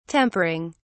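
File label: "tempering", British English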